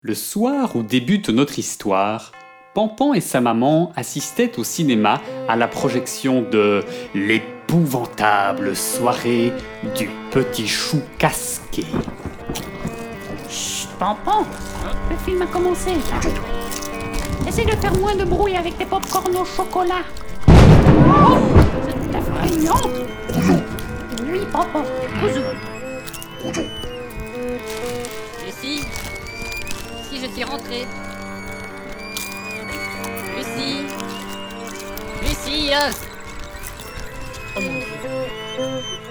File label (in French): NARRATION